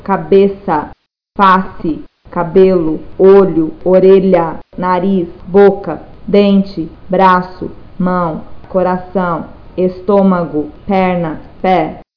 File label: brazilian